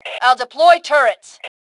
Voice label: Self Task Deploy Turrets, Female; T2 Female 03